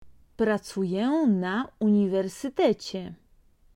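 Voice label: "pracuję na uniwersytecie", Polish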